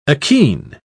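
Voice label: "achene", British English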